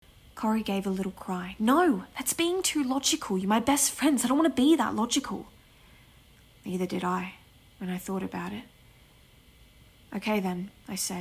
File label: Audio Book